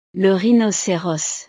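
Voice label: "le rhinoceros", French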